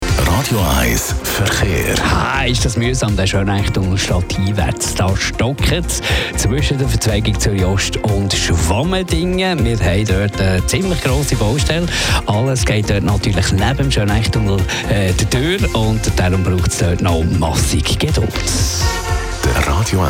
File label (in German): Radio Verkehr; Radio 1; VBZ